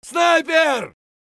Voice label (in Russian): "sniper" scream; tarkov